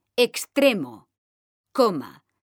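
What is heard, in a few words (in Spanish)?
"extremo coma", Spanish, female; mekanta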